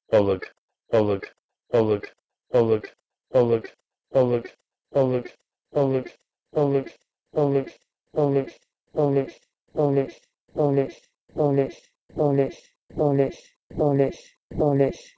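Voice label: Proto-Indo-European bholgh to Persian balesh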